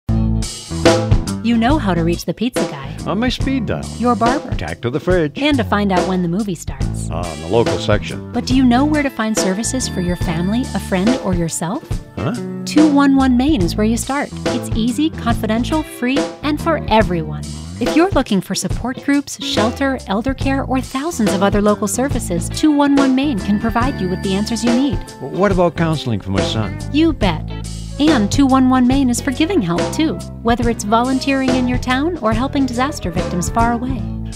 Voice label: VO, Maine